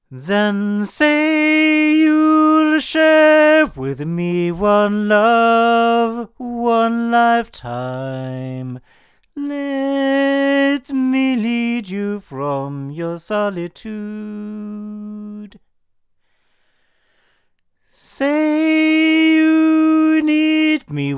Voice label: male; LineAudio CM2; microphones